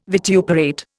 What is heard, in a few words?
"vituperate", Indian